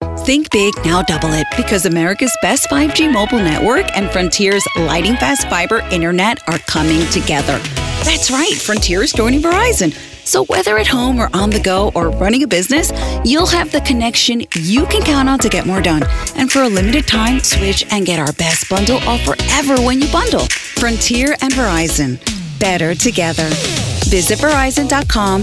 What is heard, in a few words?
voiceover foreign language; chicago; spanish